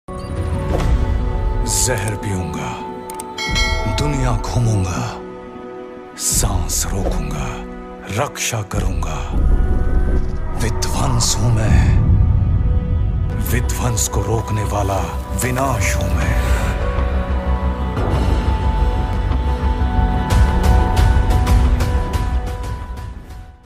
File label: big dialogue ringtone; eagle